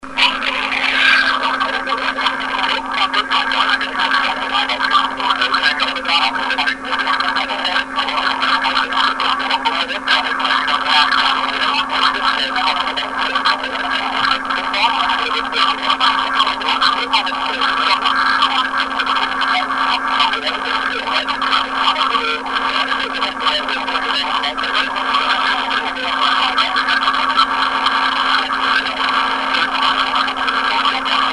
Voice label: Phone Message; EVP; This Message from an unknown name, unkown number